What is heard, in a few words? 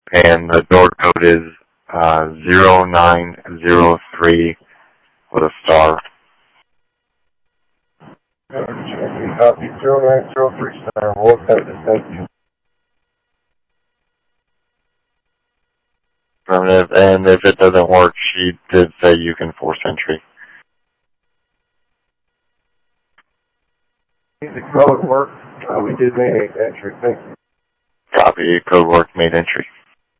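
fire radio dispatch, Phoenix Fire; H-Scottsdale; PHXFD K7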